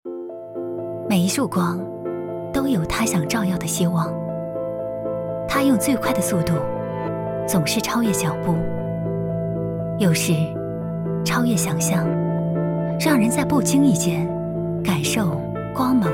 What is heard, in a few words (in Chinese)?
科技感; 光